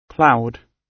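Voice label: "cloud", British English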